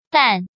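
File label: "Dàn", Chinese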